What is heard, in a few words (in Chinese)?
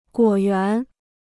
"果园", Chinese